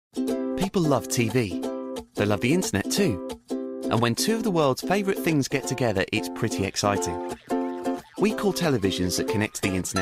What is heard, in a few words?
commercial compilation